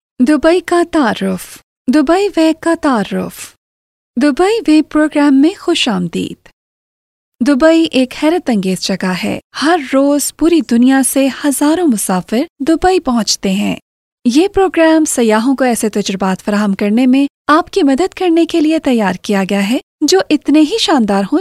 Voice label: locutora urdu voiceover